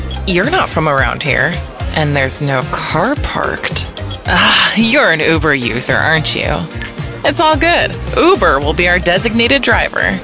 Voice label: English Voice Over

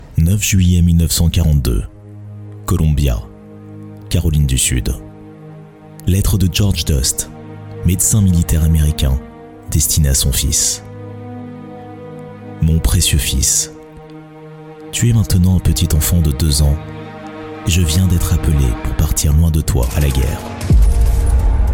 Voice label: French voice over

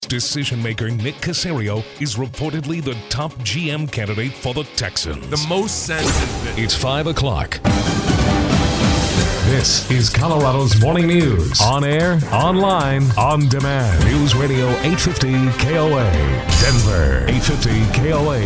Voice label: fast energetic ads in american english

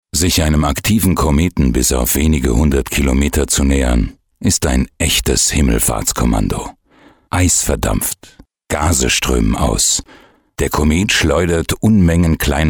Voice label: documentary authoritarian

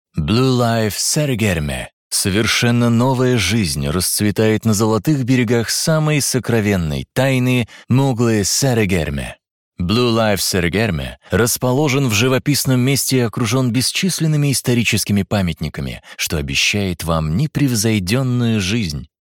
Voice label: Calm Promotion

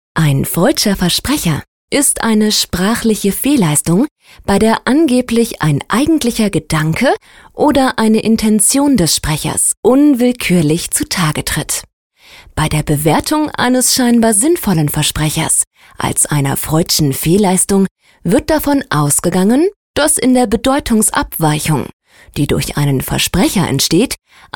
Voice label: Audiobook explanatory video

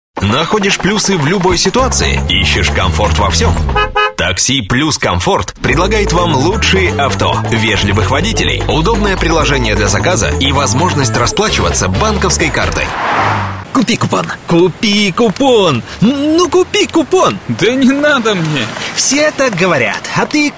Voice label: russian voiceover short animated ads

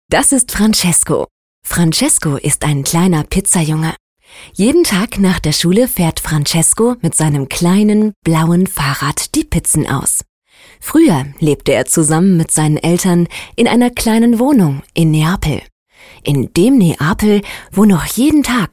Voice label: children book

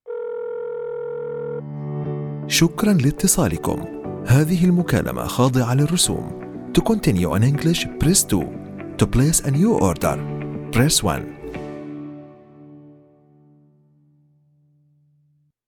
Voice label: Arabic voice over phone reception

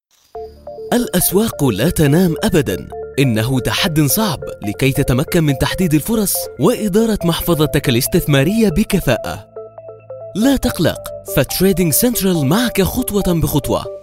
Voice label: Arabic Voice Over

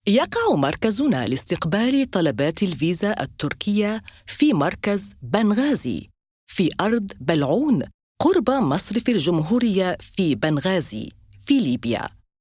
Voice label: Arabic voice over telephone switchboard

